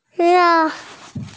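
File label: "gong yuan", Mandarin Chinese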